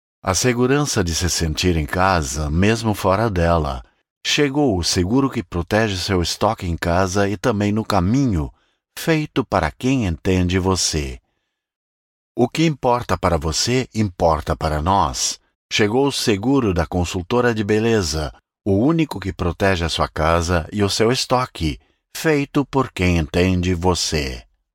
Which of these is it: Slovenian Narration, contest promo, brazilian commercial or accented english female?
brazilian commercial